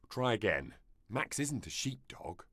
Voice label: working dog quiz sheepdog no, en; werkhonden